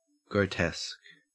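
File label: "grotesque", Australian English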